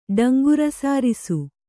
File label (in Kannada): "ಡಂಗುರಸಾರಿಸು", Kannada